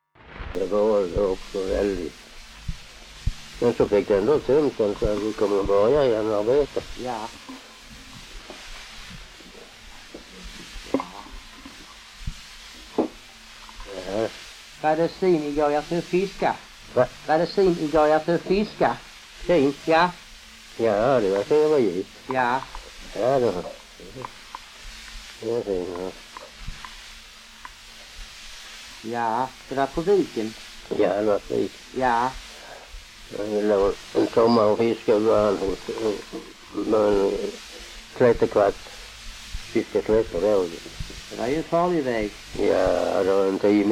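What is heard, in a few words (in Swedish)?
Allerum Skåne, dialekter svenska sagor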